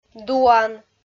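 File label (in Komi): "дуан", Komi, female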